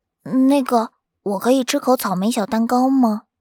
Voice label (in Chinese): 正太音